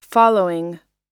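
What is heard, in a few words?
"following", American English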